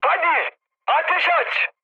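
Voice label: attack position, mood high, Turkish; War Thunder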